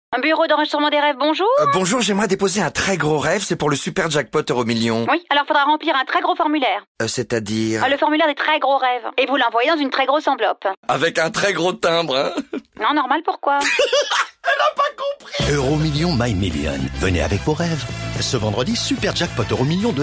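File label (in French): spot, spots radio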